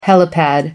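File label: "helipad", British English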